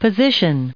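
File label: "position", us English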